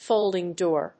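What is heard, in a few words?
"folding door", English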